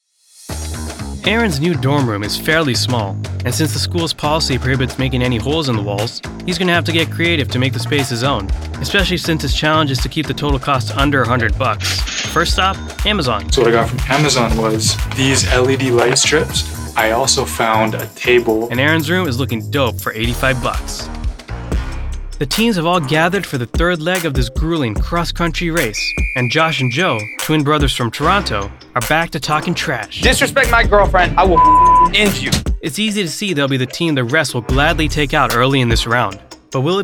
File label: TV Narration